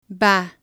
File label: "va", Spanish